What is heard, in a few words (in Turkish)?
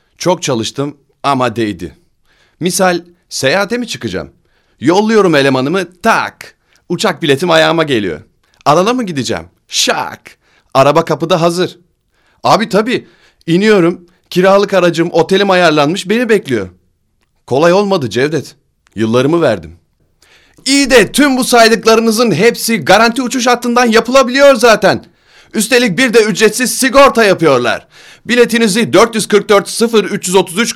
REKLAM